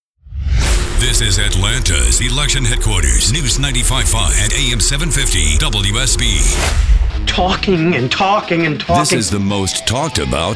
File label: tv affiliate men